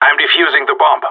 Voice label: defusing bomb, radio; GSG9

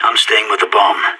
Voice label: guarding loose bomb, radio; SAS

